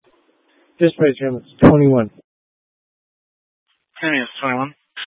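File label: Fire Dispatch, scanner audio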